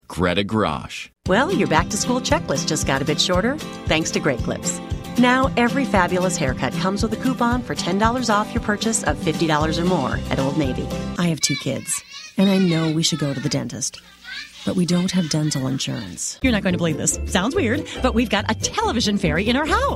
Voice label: female commercial